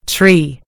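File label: "tree", American English; gcompris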